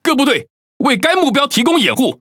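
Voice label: Chinese (Taiwan) Speech Quick Commands Ally Ship; ZH TW; World Of Warships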